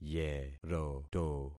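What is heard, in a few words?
"yeroto", concatenated syllables; Affixing experiment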